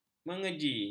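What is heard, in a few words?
"mengeji", Malay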